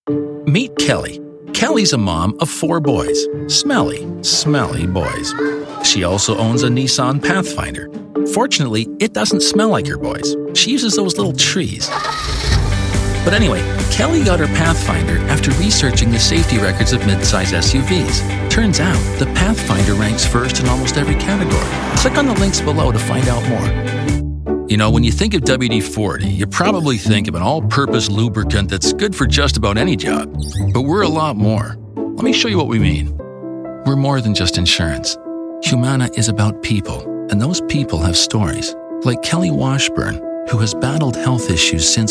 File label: english canadian explainer videos